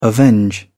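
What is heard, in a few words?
"avenge", English, British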